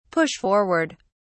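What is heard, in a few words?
"push forward", British English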